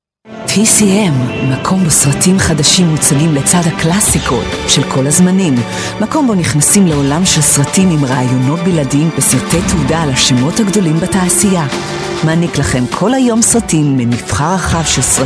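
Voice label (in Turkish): İbranice Kadın Ses; seslendirme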